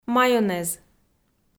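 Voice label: "майонез" Komi, female; kpv audio dict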